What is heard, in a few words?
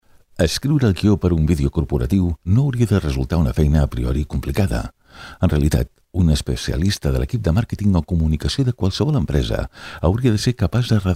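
corporativo, Catalan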